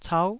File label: "cau9", taiwanese Syllables